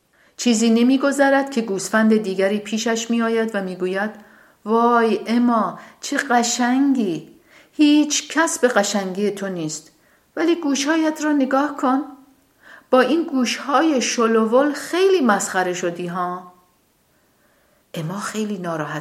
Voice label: farsi

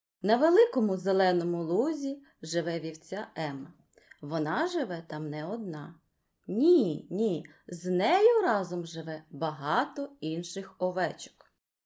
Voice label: ukrainisch

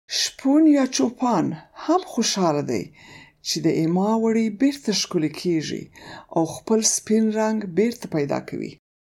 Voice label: paschtu

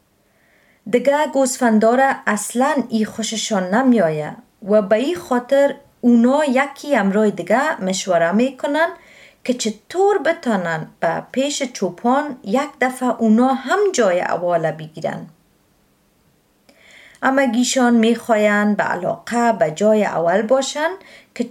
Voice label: dari

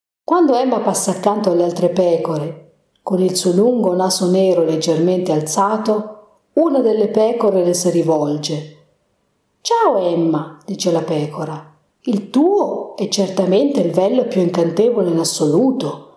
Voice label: italienisch

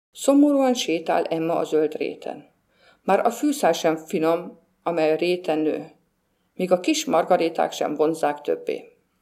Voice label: ungarisch

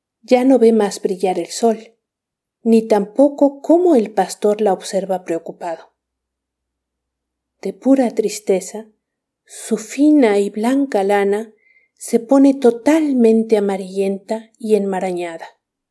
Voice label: spanisch

